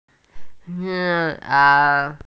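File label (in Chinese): "ni3hao3", Mandarin Chinese, female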